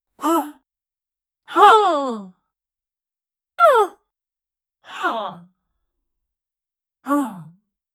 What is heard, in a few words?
aggressive female search